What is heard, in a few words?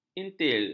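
"intil", Malay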